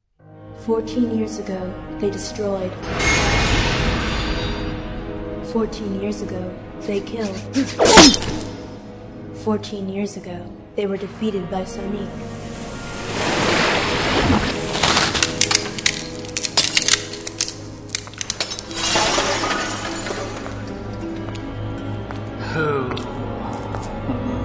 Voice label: promo trailer